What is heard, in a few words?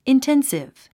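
"intensive", English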